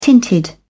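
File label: "tinted", British English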